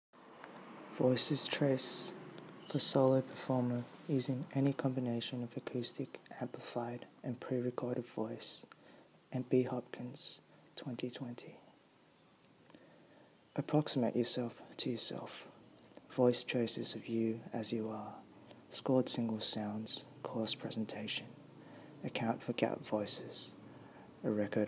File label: voice message